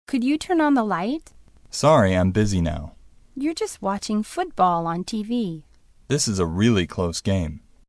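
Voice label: conversation; SMOCCA; English; ipod hatsuon exercise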